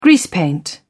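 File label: "greasepaint", British English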